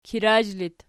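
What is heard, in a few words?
"kirazh" locative, Archi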